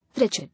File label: "Wretched", English